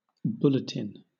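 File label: "bulletin", British English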